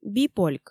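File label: "бипольк" Komi, female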